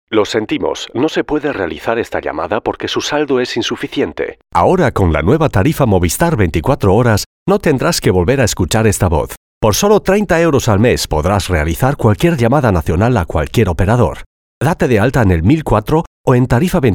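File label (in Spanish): speaker search spa werbung, Spanish, advert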